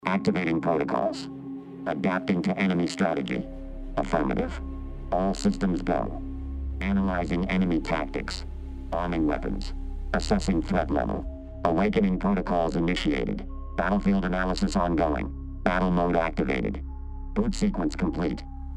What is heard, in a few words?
Robotic Voice; machine sound fx